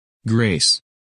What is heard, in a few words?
"Grace", women names; Английские имена: женские